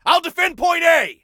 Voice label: DEFEND, Soldier Male